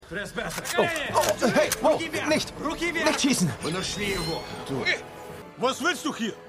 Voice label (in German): Russische Wachmaenner, Stimmproben